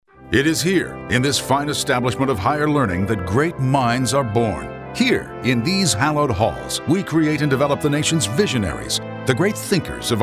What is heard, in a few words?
University Radio; Bullys